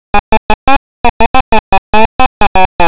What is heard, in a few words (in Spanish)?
El saxofón se toca con paciencia finita, Castellano femenino sin estudios superiores; Frases enunciativas; Bullas